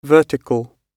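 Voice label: "vertical", British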